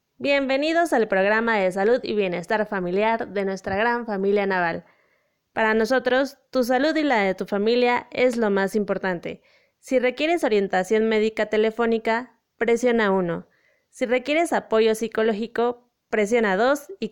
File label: IVR